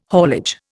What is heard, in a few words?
"haulage", Indian